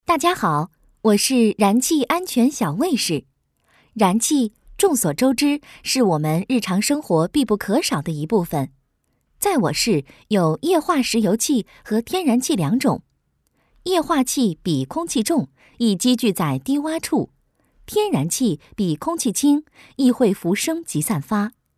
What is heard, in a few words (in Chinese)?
沉稳亲切燃气知识解说